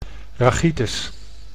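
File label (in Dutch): "rachitis", Dutch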